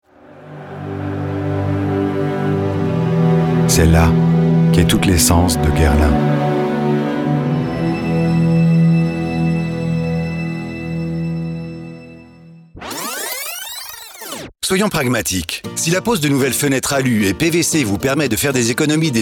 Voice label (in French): voix, voix off